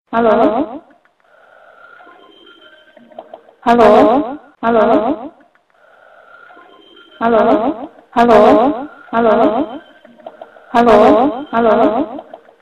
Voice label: Soft Hallo

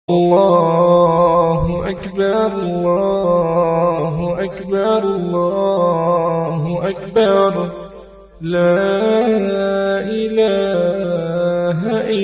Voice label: Takbir voice

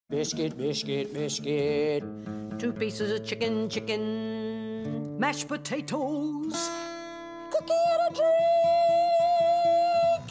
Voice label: commercial; KFC

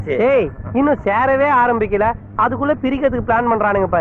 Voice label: Tamil Comedy

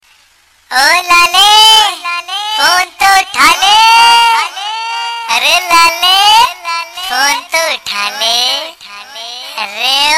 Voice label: Funny

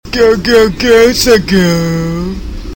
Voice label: Cs Go Go Go Tagalog

